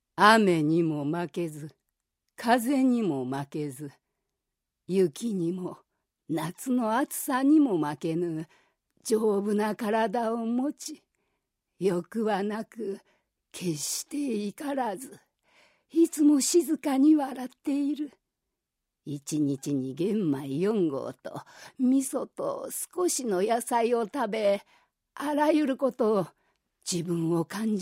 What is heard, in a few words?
narration